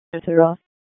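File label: Police District Dispatch, p25; wake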